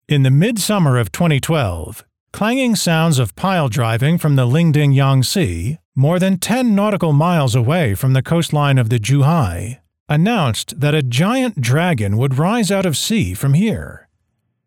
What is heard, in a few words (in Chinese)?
港珠澳短视频配音稿 英